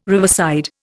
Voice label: "riverside", Indian

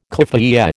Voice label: "keffiyeh", American

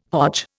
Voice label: "Hodge", Indian

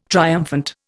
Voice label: "triumphant", Indian

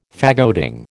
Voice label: "faggoting", American

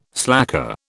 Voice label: "slacker", British

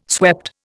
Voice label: "swept", Indian

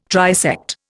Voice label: "trisect", Indian

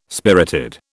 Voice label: "spirited", British

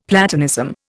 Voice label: "Platonism", Indian; Dictionary

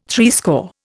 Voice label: "threescore", Indian

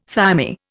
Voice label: "thymi", Indian

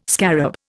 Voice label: "scarab", Indian

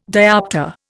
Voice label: "dioptre", Indian

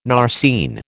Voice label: "narceine", American

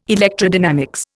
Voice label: "electrodynamics", Indian

